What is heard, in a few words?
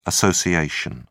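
"assoc", British English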